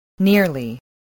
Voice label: "nearly", voice

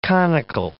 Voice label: "conical", English

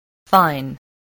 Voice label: "fine", spelling

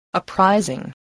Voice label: "uprising", English

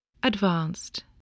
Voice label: "advanced", English voice

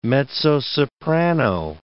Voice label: "mezzo-soprano", English

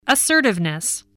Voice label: "assertiveness", english

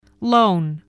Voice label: "lone", spelling

